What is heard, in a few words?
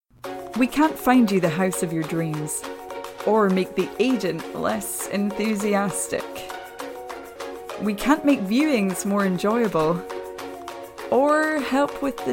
english scottish commercial; Soy una actriz de voz escocesa con experiencia, con base en Londres; Reino Unido